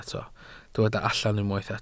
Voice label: "allan", Welsh